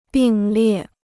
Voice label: "并列", Chinese

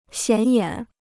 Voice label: "显眼", Chinese

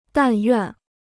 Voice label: "但愿", Chinese

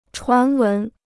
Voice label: "传闻", Chinese

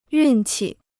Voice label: "运气", Chinese

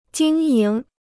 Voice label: "经营", Chinese

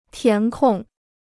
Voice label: "填空", Chinese

